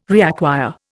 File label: "reacquire", Indian